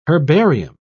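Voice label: "herbarium", British English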